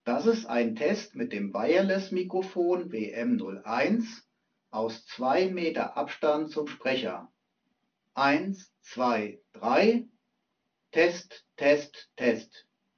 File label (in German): Abstand 2m wireless Mikrofon, mikrofon test sprachqualitaet; COMREON® HG-Tec WM01 wireless Konferenz Mikrofon mit Funk Verbindung zum Rechner getestet; 2 Meter Abstand zum Sprecher